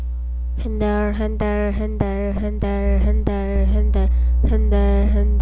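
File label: "handle", spoken word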